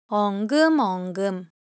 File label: Korean, Ideo Phones; Motion